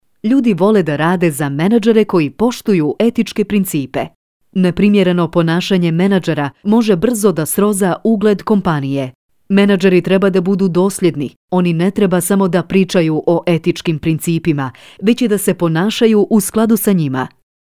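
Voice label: Serbian, female, elearning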